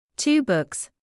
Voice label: "two books", English

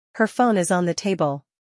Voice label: "Her phone is on the table", English

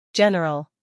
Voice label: "general", English

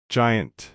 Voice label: "giant", English

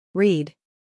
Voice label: "read", English

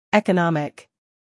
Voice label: "economic", English

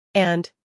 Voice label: "and", English

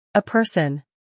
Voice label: "person", English

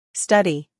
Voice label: "study", English

